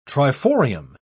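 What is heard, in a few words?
"triforium", British English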